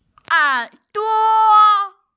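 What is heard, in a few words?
"er duo", Mandarin Chinese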